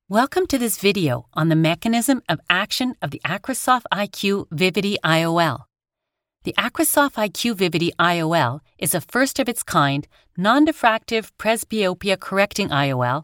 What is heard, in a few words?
elearning Medical